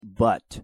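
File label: "but", English pronunciation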